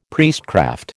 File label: "priestcraft", American